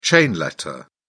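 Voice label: "chain letter", British English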